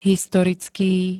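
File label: "historický", Slovak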